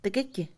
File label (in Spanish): todo, Mixe, Mujer; Cantidad; INALI